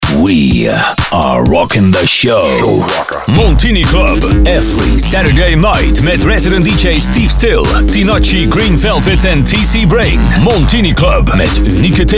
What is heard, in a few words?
party commercial; club montini